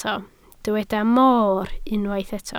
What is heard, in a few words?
"mor", Welsh